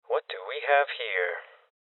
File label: Voices Open Safe Male Young; rebel cops 2019 gamerip